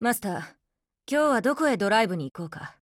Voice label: HELLO JP; APC556